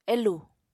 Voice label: "elù", Apatani